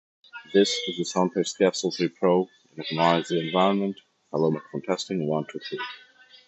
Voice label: noisy; capsule3